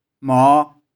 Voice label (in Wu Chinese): "貓", 台州語; 貓。[cat](n.); 玉環台山